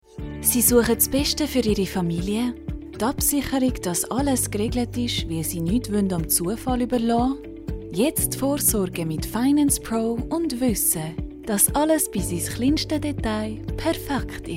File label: German Switzerland narration